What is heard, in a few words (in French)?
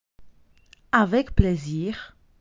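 "avec plaisir", French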